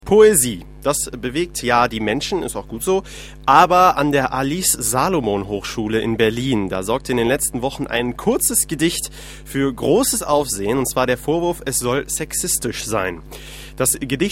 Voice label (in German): Kommentar